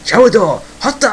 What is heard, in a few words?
babble we have hostile; valve; gook